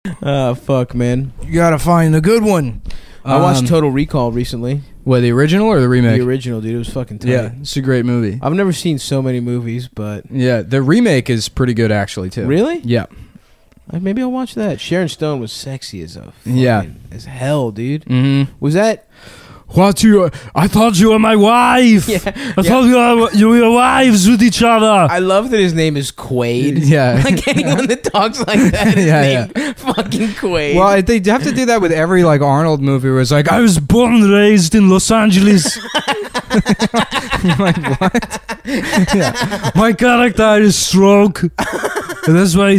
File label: Action Star Accents; Podcasts; Cum Town